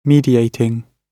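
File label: "mediating", British English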